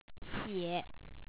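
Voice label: "xie3", Chinese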